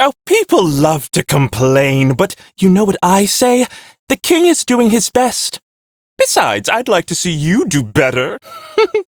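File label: Ambient Male Brisk, Dialog; Duviri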